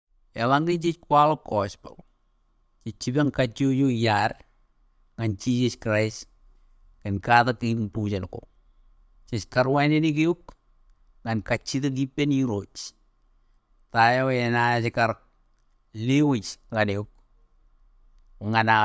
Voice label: Marshallese

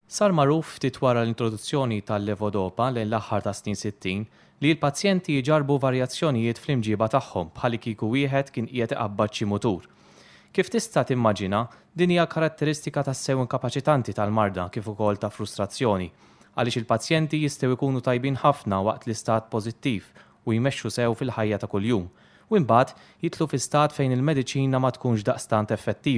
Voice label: MALTESE

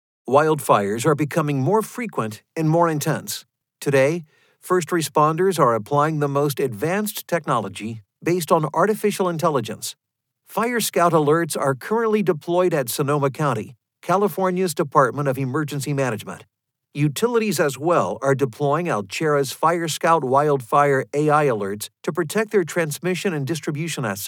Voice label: US elearning

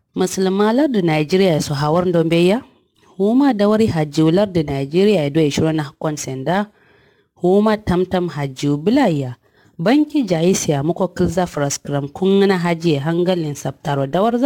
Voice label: Kanuri

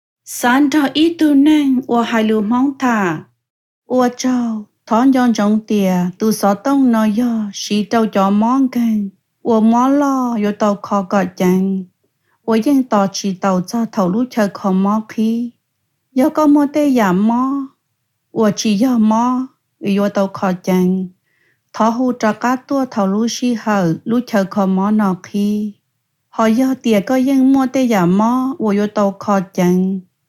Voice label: Hmong telephony

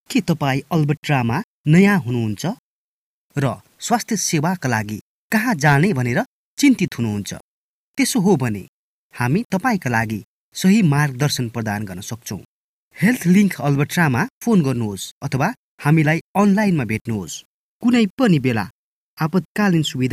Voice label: NEPALI narration

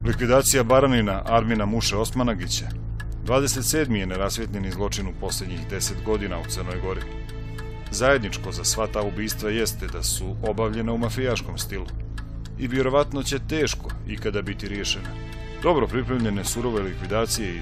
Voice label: narration

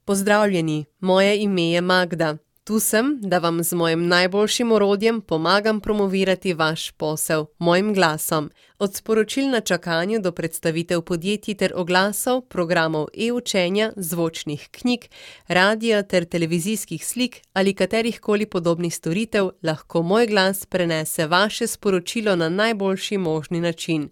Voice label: SLOVENIAN Female

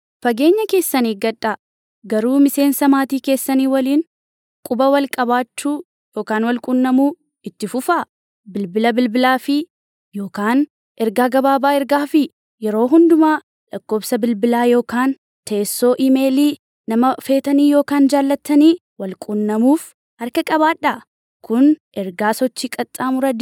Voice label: Oromo

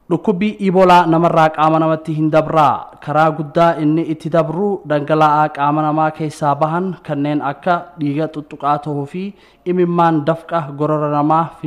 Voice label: Oromo male

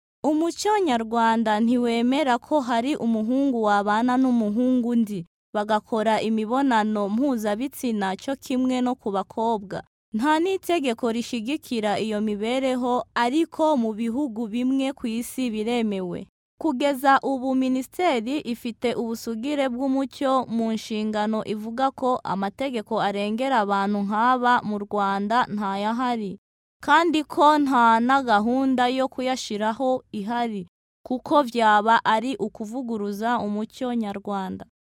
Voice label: Kinyarawanda Female

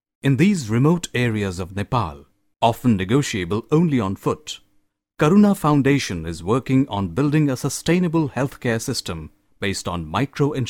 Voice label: Hindi English narration

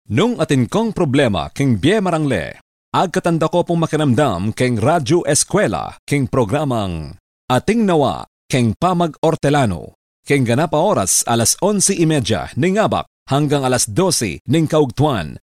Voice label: Kapampangan Male

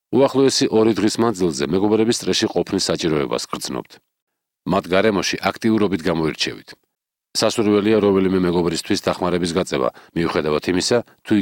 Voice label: Georgian

